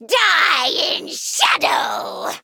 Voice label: Female Harpy Hero Power; Serena